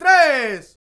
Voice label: "Tres!", Vocals; Roland BK-5